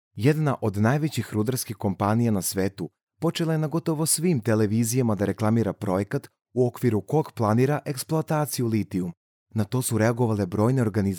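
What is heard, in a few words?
Serbian Serbia male narrative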